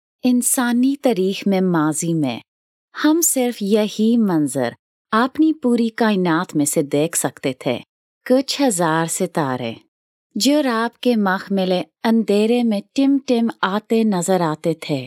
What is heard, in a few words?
Urdu